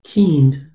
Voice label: "cynd" Anglo Saxon

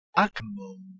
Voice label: "akmon" Ancient Greek

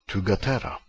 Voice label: "thugatera", Ancient Greek

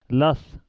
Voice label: "las", Pashto

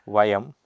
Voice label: "vayam", Sanskrit

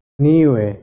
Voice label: "niwe", Anglo Saxon

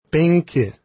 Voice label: "penki", Lithuanian

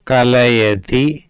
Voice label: "kalayati", Sanskrit

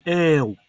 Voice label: "eeow", Anglo Saxon

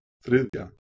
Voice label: "thridja", Proto Germanic

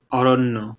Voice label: "oronno", Bengali

